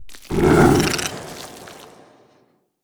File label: portrait synthetic; Stellaris; machineage